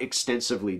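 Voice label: "extensively", US English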